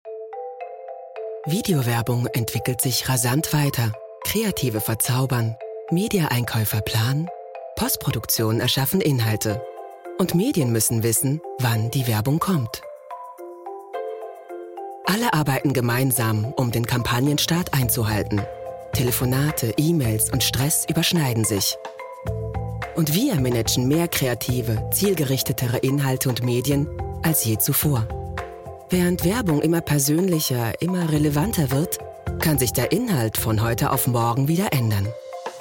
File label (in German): Imagefilm Cloud; imdb